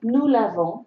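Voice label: "laver nous", French; frans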